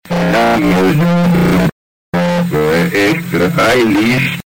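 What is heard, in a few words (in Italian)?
"diranno", Italian; Diranno al genitore: dunque, extra fai lì!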